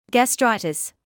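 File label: "gastritis" English, British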